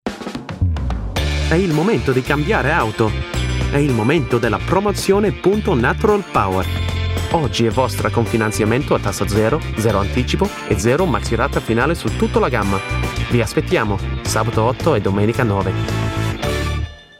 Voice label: Italian; European, Italian, Male, 20s-30s